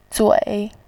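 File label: "zui" Mandarin Chinese, third tone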